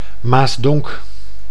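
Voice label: "Maasdonk", Dutch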